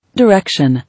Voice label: "Direction", English

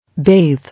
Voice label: "Bathe", English

